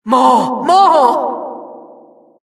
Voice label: announcer, vo, killstreak